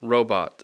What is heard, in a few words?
"robot", English